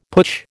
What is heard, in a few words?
"putsch", American